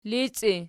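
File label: "lici" nominative, Archi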